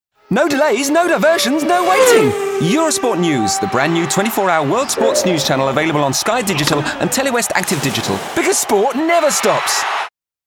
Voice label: news traffic; EUROSPORTNEWS